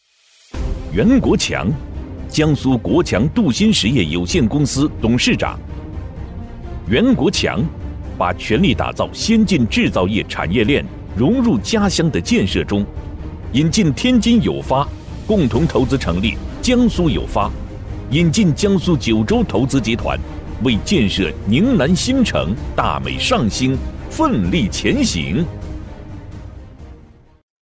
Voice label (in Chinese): 男, 招商专题, 大气硬朗; 稳重底蕴; 大气浑厚